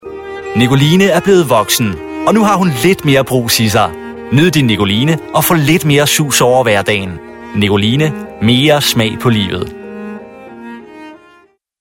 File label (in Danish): Reklamedemo